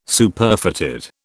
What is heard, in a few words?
"Superfatted", British